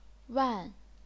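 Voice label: "wan", chinese pinyin, fourth tone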